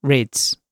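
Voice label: "rids", British English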